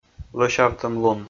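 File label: "лӧсявтӧмлун", Komi, male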